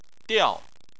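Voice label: "diao" fourth tone, Chinese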